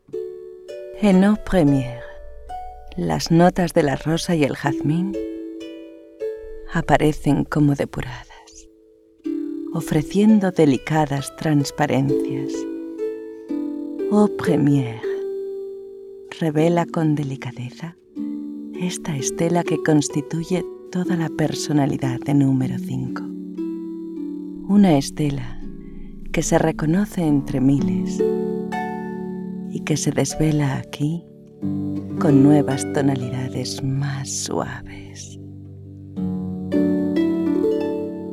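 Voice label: ESEU General, Spanish (Spain); GoLocalise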